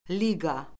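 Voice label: "liqa", Azerbaijani; Orfoqrafiya Lüğəti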